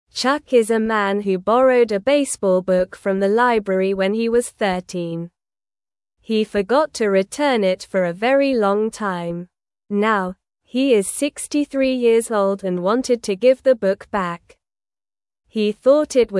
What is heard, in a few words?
English Newsroom Beginner SLOW Reading; Chuck Returns Library Book After 50 Years